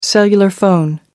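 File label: "cellular phone", British English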